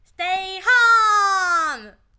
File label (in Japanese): ステイホーム ギャル